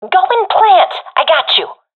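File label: friend plant bomb, professional female; vo; agents; Source Model Packs; Counter Strike Model Pack; CS2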